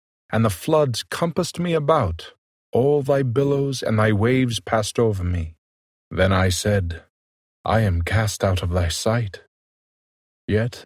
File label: jonah male voice; the old testament